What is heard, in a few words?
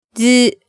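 "dzu", Japanese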